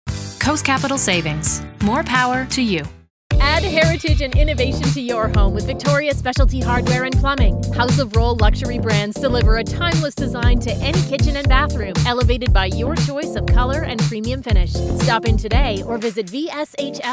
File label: Voice reel Commercial